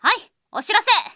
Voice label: message, Anime Girls; Japan; Windows; Bing; Nanao